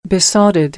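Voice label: "besotted", American English; AmericanBritish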